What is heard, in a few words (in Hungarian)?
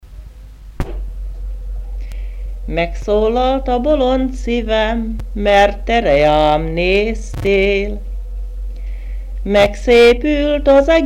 nyelvjaras gyujtesek